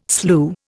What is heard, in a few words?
"slue", Indian